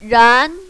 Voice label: "ran2", mandarin, female

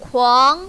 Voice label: "kuang2", mandarin, female

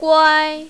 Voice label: "guai1", mandarin, female

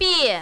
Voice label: "bie4", mandarin, female

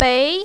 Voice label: "bei2", mandarin, female

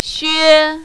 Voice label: "xue1", mandarin, female

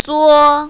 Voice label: "zuo1", mandarin, female

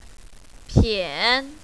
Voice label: "pian3", mandarin, female

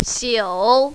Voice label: "xiu3", mandarin, female

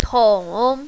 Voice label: "tong3", mandarin, female

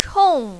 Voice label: "chong4", mandarin, female

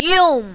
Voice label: "yong4", mandarin, female

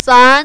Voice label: "zan2", mandarin, female

